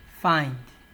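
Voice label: "find", Nigerian Pidgin, male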